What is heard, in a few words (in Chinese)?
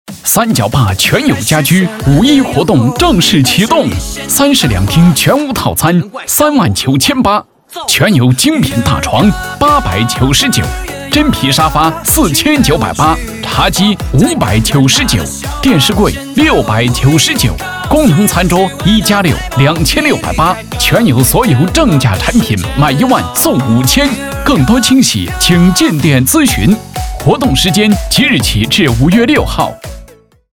家具促销激情大气; 全友家居